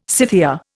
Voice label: "Scythia", Indian